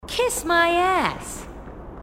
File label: marine tough